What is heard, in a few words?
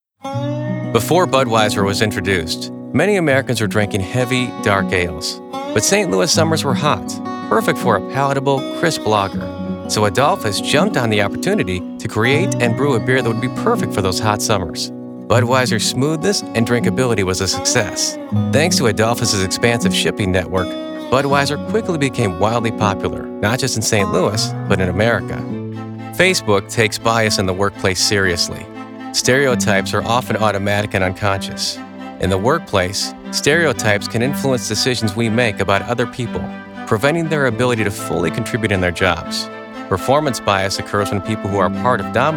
Narration, Male voices